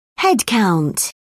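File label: "headcount", British English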